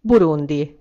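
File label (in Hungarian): "burundi", Hungarian; gcompris; geography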